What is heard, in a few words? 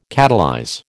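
"catalyze", American English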